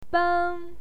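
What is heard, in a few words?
"beng", Mandarin Chinese, first tone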